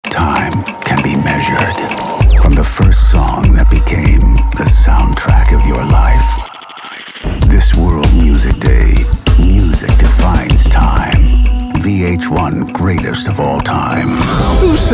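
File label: US English, general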